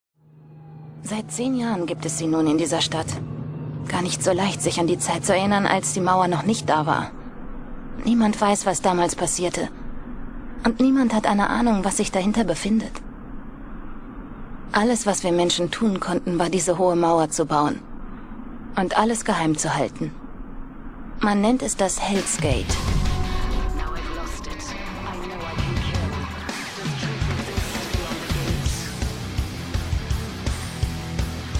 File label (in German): ERZAEHLER COOL; Darker than Black; Misaki Kirihara